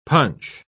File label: "punch", pronounce